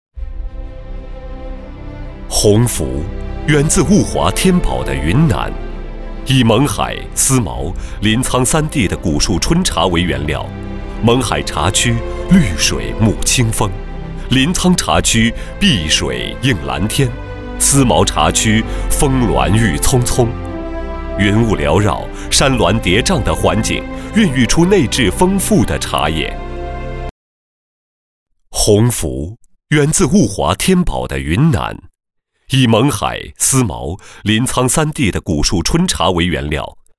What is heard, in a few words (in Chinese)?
男 独白; 洪福